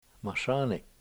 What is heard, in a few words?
"mashAAni7", washo; pumice